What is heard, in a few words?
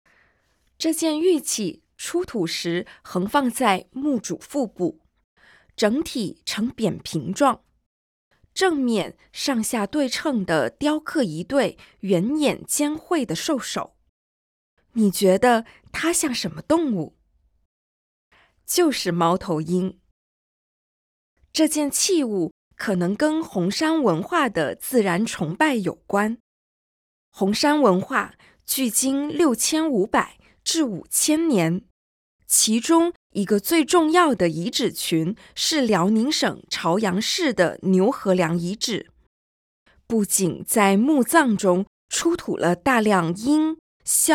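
Mandarin, audio guide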